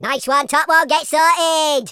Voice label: victory, speech, Madchester

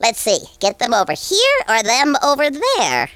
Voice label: "watch this", Conscience; speech

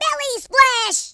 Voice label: jump, American Wrestler

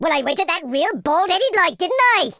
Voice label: victory, Welsh; speech

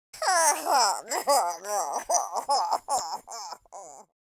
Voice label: sad, Russki

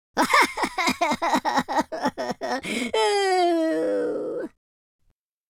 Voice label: sad, speech, Super Hero

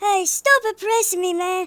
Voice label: go away, speech, Hippy

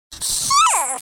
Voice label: relief, speech, Alien; Worms3D

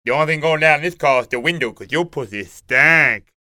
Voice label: Overhead in parking lot; wawa

